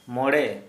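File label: five, Santali, male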